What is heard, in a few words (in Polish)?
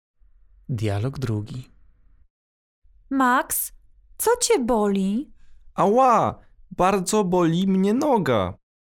dialog